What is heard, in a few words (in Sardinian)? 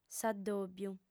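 "s'addòbiu", female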